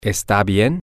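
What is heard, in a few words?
it it okay, Spanish, male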